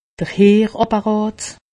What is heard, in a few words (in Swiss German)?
"heerapparat", alsace; medecine